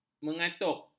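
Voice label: "mengatup", Malay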